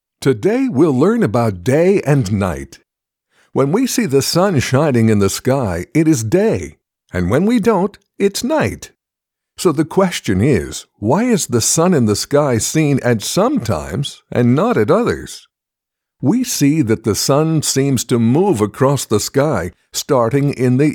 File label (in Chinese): 外籍男英 外语 美式英语 专题